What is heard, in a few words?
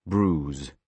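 "bruise", British English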